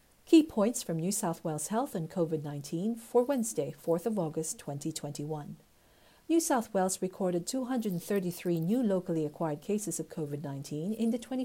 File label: english; covid-19 coronavirus; covid-19 daily key points